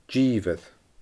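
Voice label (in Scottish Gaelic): "dìobhair", Scottish Gaelic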